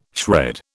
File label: "shred", British